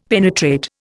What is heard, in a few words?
"penetrate", Indian; Dictionary